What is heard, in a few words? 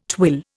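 "twill", Indian English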